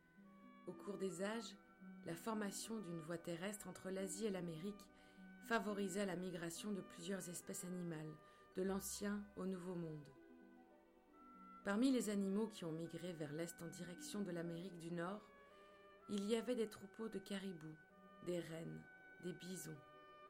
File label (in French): Voix documentaire